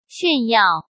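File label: "炫耀", Mandarin Chinese; chinese-flashcards; hsk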